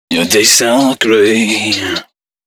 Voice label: male d#130, Dance Ultra Voice hooklines